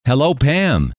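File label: hello, male; trainer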